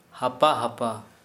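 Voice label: slow, Kera Mundari, male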